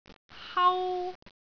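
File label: "hau1", Cantonese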